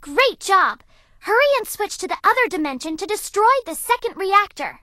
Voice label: English Voice